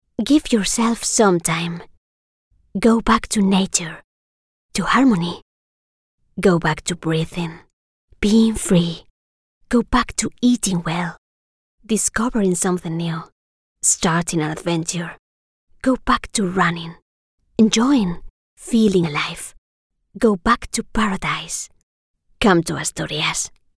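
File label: Spanish Accented English Female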